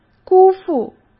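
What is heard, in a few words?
"辜负", Mandarin Chinese; chinese-flashcards; hsk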